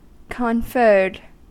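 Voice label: "conferred", American English; pronunciations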